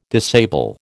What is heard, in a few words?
"disable", American